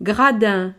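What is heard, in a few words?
"gradin", French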